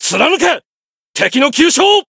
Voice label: chant

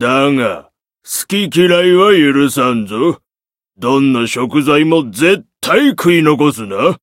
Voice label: story, jp

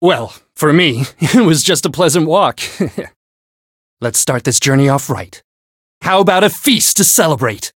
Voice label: Voice story; shigure

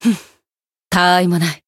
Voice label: win, jp; milla

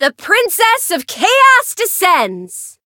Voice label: variable chant

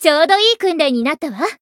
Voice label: win, jp

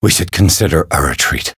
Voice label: Voice encount fear; bertrand

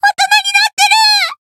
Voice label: Voice jp

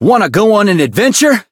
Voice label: chant

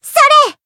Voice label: attack, jp

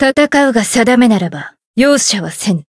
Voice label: Voice encount jp; hiina

